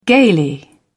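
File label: "gaily", British English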